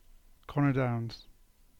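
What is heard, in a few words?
"Connor Downs", English; place names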